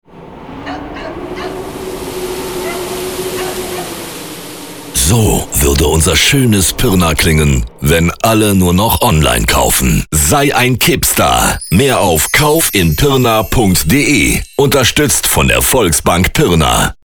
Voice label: spot wind